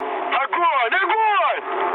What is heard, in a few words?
attack position, mood med, speech ru; War Thunder